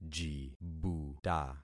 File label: "jibuta", concat syllables; Affixing experiment